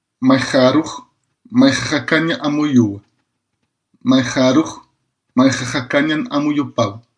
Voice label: aymara